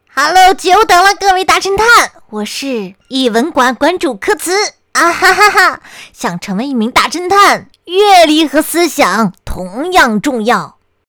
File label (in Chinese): 女 角色音 怪异 大侦探